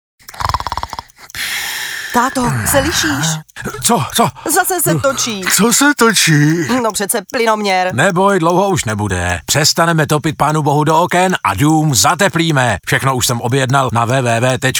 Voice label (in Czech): Reklamní spot Radio; Radio Kiss Hády